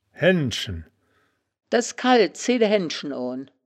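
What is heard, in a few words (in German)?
"haennschen", wittlicher woerterbuch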